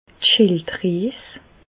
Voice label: "schilddries", Bas Rhin; medecine; thyroïde

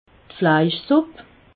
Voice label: "fleischsupp", alsace

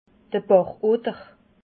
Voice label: "bachotter", alsace

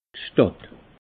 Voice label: "stadt", alsace

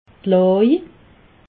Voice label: "laj", alsace

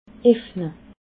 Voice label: "efne", alsace

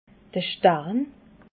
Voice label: "starn", alsace

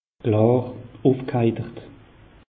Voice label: "klar", alsace; meteo

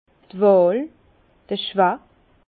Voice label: "wahl", Bas Rhin; vin; choix

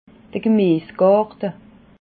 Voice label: "gmiesgarte", alsace

